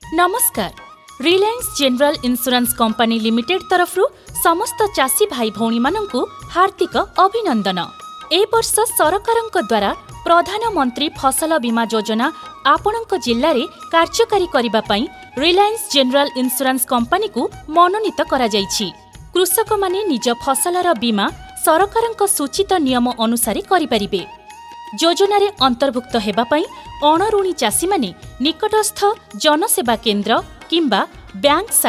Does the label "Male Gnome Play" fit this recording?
No